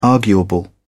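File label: "arguable", British English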